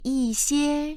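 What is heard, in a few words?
"yi4xie1", Chinese, female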